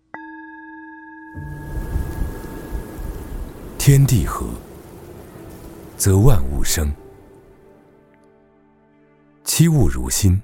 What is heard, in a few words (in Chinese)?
男 高端 自然 沉稳; 天地合